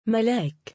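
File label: female name "malaak", Arabic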